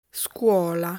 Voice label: "Scuola", Italian

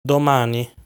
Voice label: "Domani", Italian pronunciation

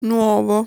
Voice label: "Nuovo", Italian

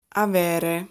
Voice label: "Avere", Italian

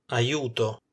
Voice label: "aiuto", Italian

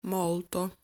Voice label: "Molto", Italian

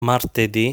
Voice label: "Martedì", Italian

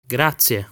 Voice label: "grazie", Italian; lesson 5 pronunciation

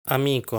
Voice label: "Amico", Italian; lesson 6 articles plural

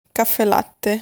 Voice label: "Caffellatte", Italian

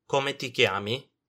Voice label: "come ti chiami", Italian

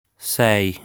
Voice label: "Sei", Italian

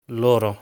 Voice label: "Loro", Italian

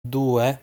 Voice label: "Due", Italian; lesson 2 numbers

